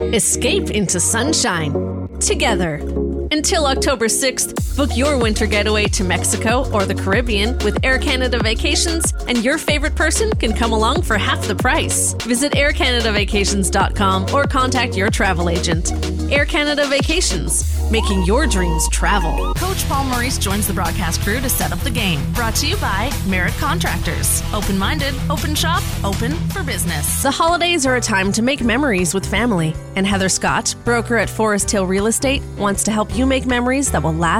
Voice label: Commercial, Voice Demo